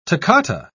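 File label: "toccata", British English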